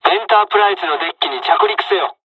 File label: landing, dialogs japanese; War Thunder